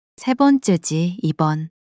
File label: sadness, monologue